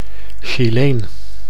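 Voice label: "Chileen", Dutch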